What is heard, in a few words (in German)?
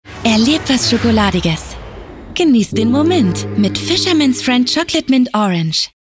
Werbung; Fishermans Friend